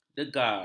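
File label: "degar", Malay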